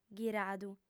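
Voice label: "ghiradu", Sardinian, female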